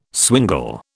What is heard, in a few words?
"swingle", British